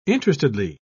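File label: "interestedly", English, British English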